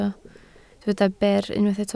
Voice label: "ber", Welsh